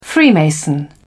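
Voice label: "freemason", British English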